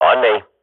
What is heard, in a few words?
radio bot follow me, swat; agents